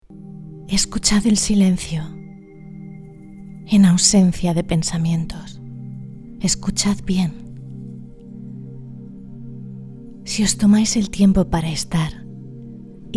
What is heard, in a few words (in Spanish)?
Meditacion Relajado Calido Amoroso